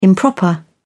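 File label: "improper", British English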